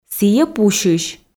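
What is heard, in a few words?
"сійӧ пусьысь", Komi, female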